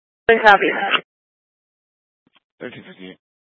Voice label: Grand Junction Fire Department Dispatch